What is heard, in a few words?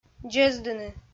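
"дзездыны", Komi, female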